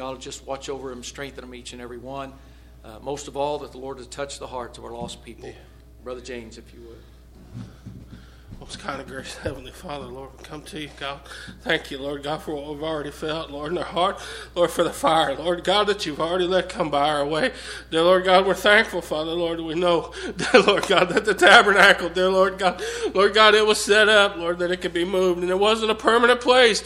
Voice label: Broadcast Prayer